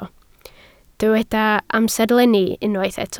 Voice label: "amserlenni", Welsh

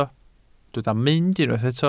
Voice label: "mynd", Welsh

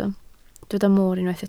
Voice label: "moor", Welsh

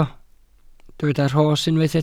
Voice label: "rhos", welsh

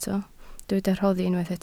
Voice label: "rhoddi", Welsh

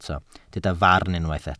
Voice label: "farn", welsh

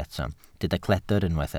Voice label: "cledr", Welsh

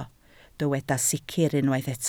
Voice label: "sicr", Welsh

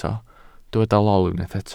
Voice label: "lol", Welsh

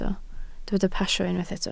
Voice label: "pasio", Welsh

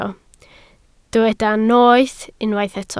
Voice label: "noeth", Welsh

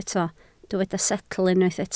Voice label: "setl", welsh

